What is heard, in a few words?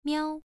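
"miao", tone 1, pronunciation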